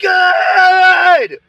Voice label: "good", ANGRY, Voice; expletives

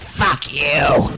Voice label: fuck you male sqwak; Voice; expletives

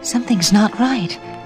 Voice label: "something's not right" female

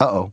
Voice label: "uh oh", Voice; ooh ahh; drew carey

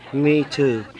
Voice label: "me too", male; Voice

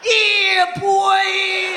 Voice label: "yeah boy", voice

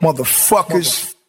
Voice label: "motherfuckers", expletives

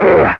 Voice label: "oh", monster

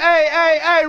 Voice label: ay ay ay, Voice ooh ahh

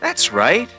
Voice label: that's right male

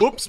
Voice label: "oops" male; ooh ahh; human

